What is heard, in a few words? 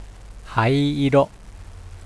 "hai-iro", Japanese